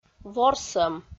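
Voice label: "ворсӧм", Komi, female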